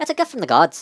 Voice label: "drop", Irish; Worms Armageddon

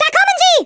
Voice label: "incoming", German; Worms Armageddon

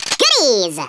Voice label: collect, Wacky

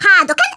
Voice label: FIREBALL, Polish; Worms Armageddon

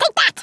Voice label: "dragon punch", Brooklyn; Worms Armageddon

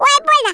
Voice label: ouch, Russian

